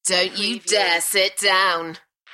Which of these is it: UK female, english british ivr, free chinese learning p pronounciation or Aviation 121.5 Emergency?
UK female